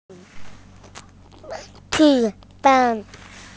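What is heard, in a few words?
"qu1 fen1", Mandarin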